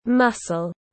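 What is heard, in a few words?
"Mussel", English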